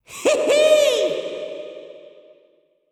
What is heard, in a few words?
VO en